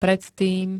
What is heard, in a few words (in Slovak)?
"predtým", slovenské